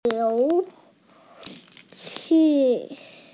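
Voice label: "you2 xi4", Mandarin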